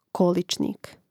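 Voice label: "količnik", Croatian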